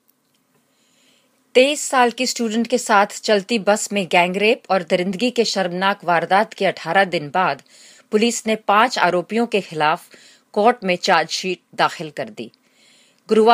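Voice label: Hindi, Narrative, voice demos; GoLocalise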